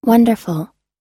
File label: "wonderful", us